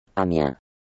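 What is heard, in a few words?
"Amiens", French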